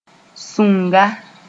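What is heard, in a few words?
"sũnga", Mooré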